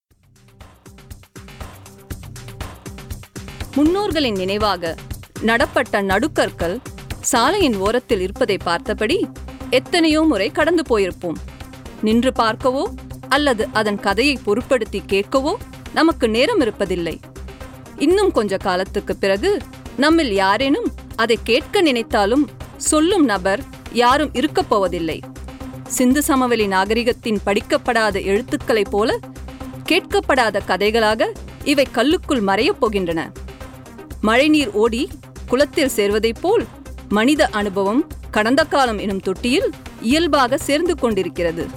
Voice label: narration tamil

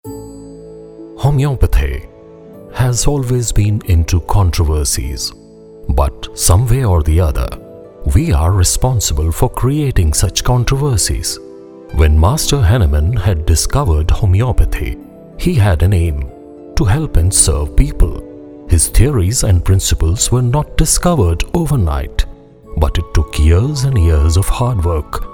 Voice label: eng

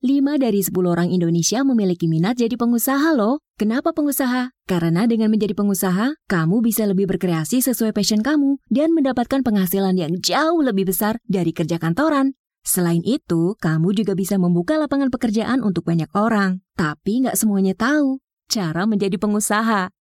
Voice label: EXPLAINER bahasa; ITRI

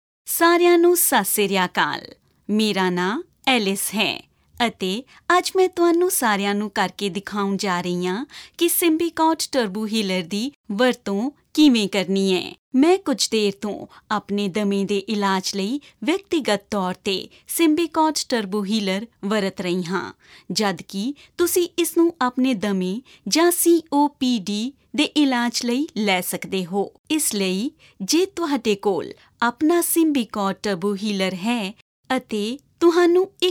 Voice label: punjabi narration

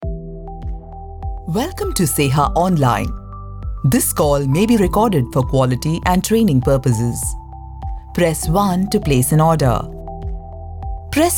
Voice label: English IVR

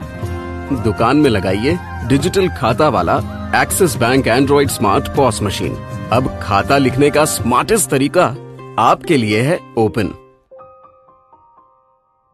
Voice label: TVC MVO Hindi

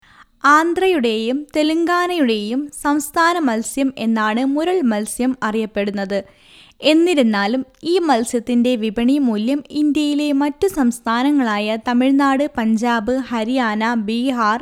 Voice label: explanatory video

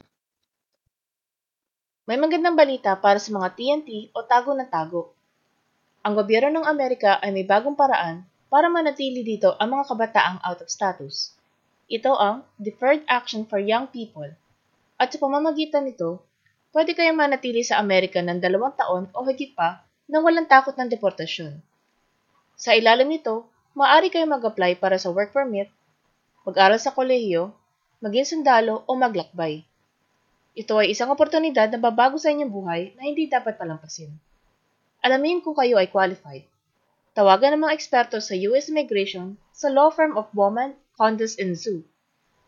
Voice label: filipino

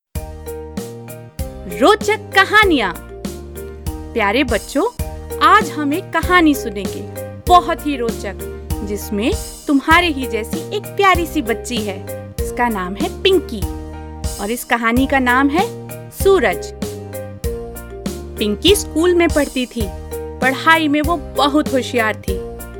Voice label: story hindi

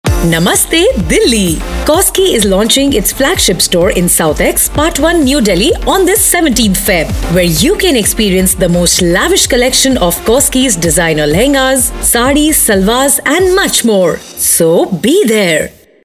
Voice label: VO, ad; koskii

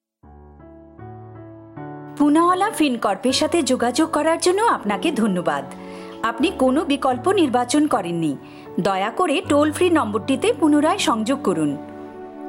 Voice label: Bengali

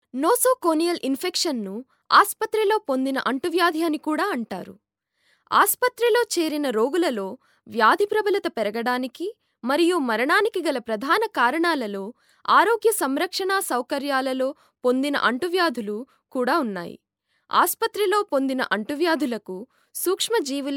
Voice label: narration telugu

